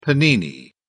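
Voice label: "panini", British English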